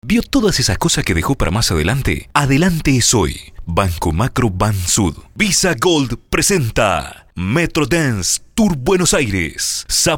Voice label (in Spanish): locucion